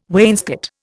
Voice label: "wainscot", Indian